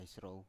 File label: "isrow", Biak